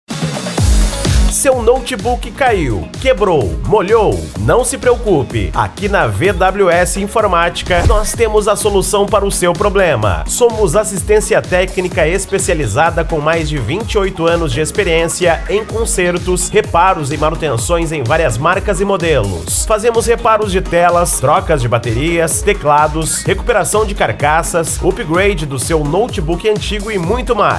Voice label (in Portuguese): Locutor; VWS Informatica Jundiai SP